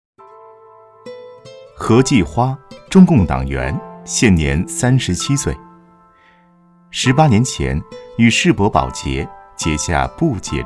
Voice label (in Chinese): 人物专题, 国语男声配音, 温情人物专题; 世博人物